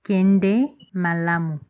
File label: goodbye, Lingala